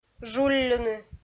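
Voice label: "жулльыны", Komi, female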